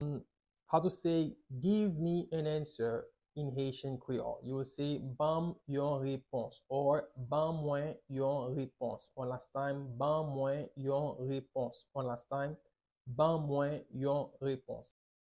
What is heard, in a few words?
Give me an answer in Haitian Creole Ban m yon repons pronunciation